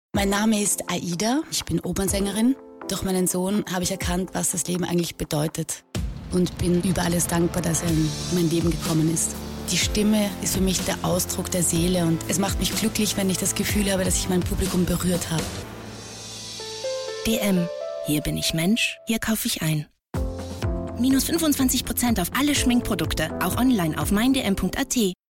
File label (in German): Frau